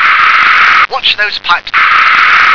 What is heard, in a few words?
"watch out", English